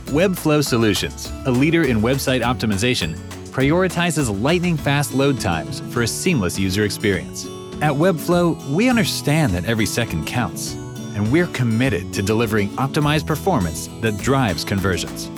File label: Corporate; Webflow Solutions